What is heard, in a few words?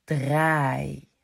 "Draai", Dutch